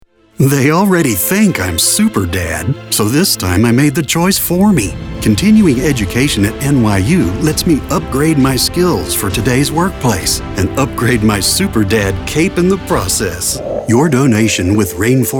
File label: Commercial